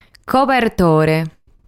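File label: "Cobertore", Sardinian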